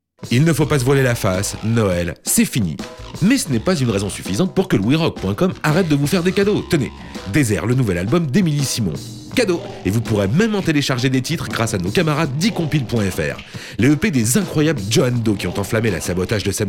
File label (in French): Promo, Pub; OUI FM